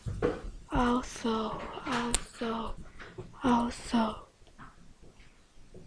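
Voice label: "also", spoken word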